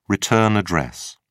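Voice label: "return address", British English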